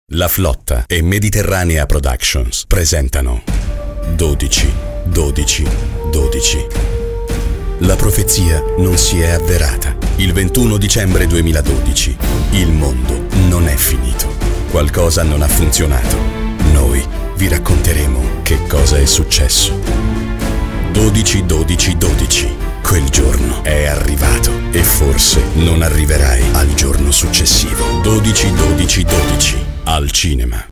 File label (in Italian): spot radio